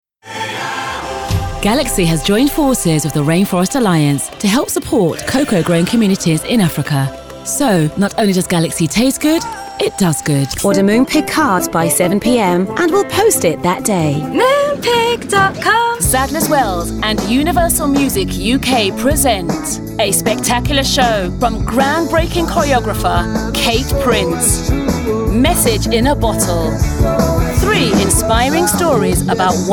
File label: Montage commercial